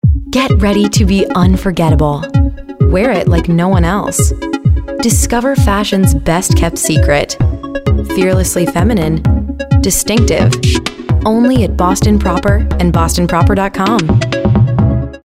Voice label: smooth sexy

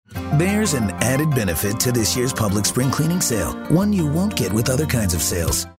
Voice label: friendly genuine

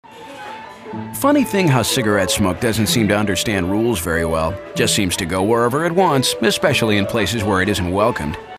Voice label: attitude

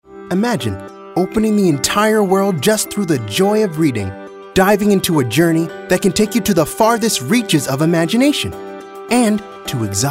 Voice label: storyteller

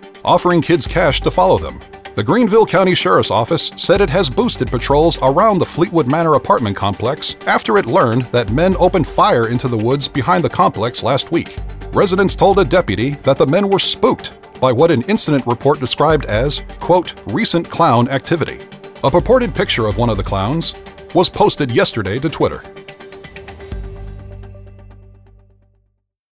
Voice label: News ANNCR

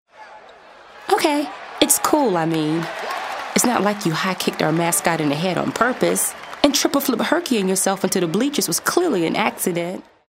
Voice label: younger

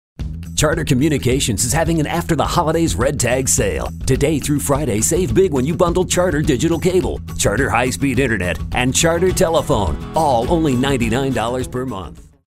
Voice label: upbeat

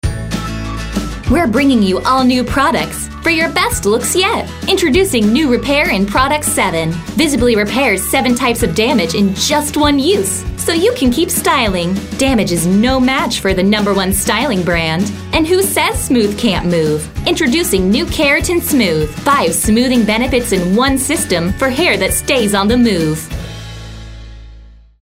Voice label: Perky

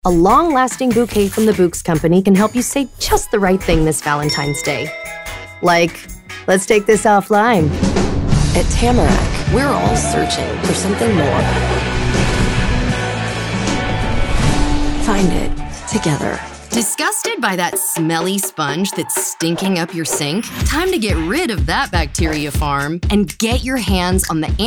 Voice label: Commercial

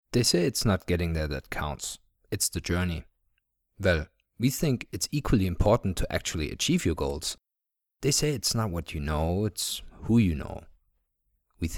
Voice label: English

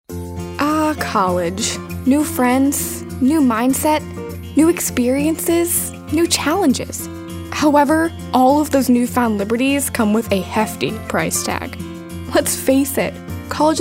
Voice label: girl next door

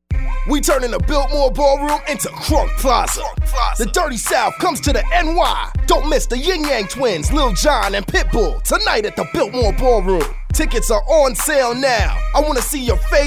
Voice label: hip hop

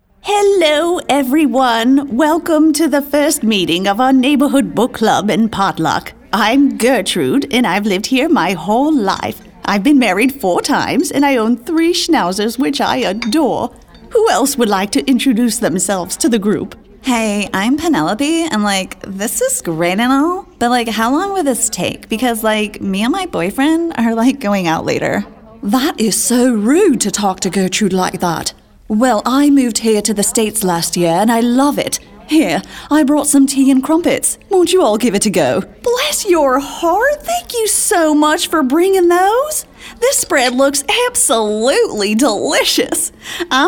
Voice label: Character Demo

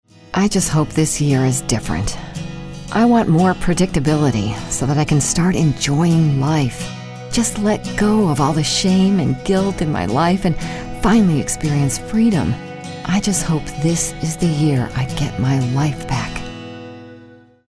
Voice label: thoughtful

